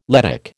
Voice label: "Lettic", American